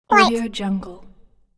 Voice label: cute voice "right"

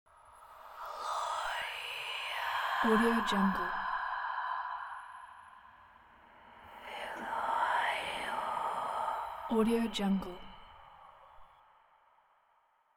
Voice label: whispering

